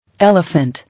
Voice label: "Elephant", English